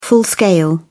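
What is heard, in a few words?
"full-scale", British English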